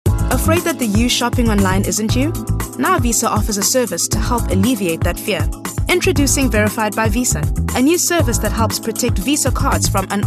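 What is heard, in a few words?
female, South African English, commercial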